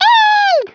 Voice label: "Fire", Swedish, Speech; 11khz; International